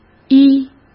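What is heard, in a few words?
"依", Mandarin Chinese; chinese flashcards; hsk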